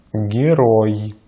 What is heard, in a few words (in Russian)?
"герой", Russian